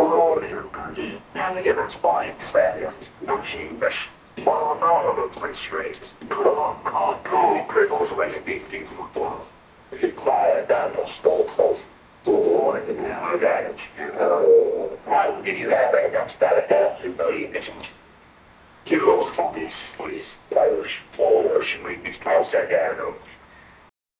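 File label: announcement from a national, military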